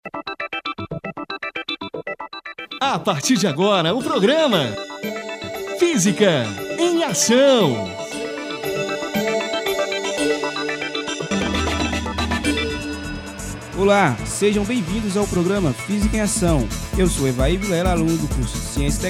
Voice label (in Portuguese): SPOT; Raio no carro